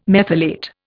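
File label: "methylate", English, Indian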